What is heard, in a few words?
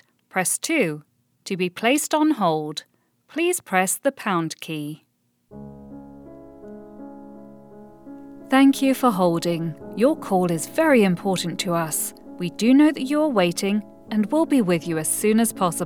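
ivr, British voice actor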